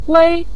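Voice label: "gui", Mandarin Chinese, neutral tone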